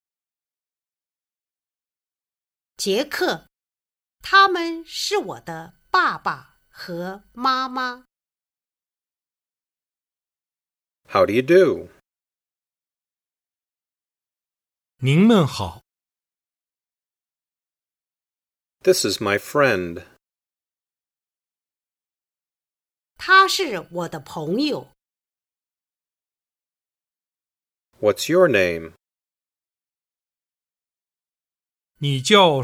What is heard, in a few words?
Dialog; Chapter 2: Introducing...